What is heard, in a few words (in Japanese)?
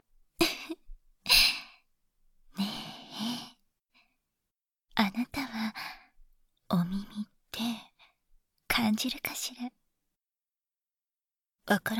ねっとり耳舐め手コキで射精管理（囁き重視編集 効果音あり）, ASMR